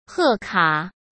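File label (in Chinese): "贺卡", Mandarin Chinese; hsk; chinese-flashcards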